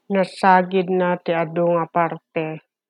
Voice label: Ilocano